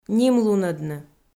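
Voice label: "нимлунӧдны", Komi-Zyrian, female; kpv audio dict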